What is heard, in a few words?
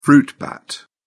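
"fruit bat", British English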